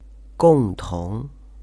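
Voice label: "gongtong", Chinese; ethnic minorities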